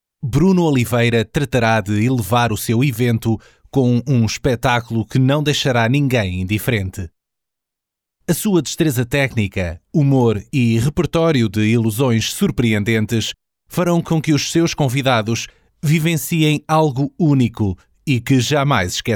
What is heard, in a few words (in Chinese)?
葡萄牙语 男声; 外籍